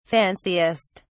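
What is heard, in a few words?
"fanciest", British English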